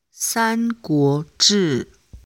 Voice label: chinese pronunciation zhi chi shi ri